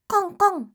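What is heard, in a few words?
"konkon", Japanese; doubutsu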